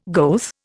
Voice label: "goeth", Indian

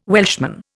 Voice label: "Welshman", Indian

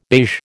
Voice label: "beige", American

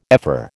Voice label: "ephor", American

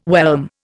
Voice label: "whelm", Indian

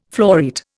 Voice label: "floreat", Indian

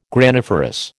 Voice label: "graniferous", American

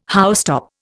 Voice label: "housetop", Indian

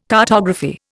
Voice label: "cartography", Indian

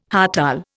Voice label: "hartal", Indian

